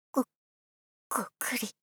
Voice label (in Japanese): ご、ごくりっ・・・ 妹系×高音域